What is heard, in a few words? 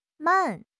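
"man4", Chinese; HSK; HSK2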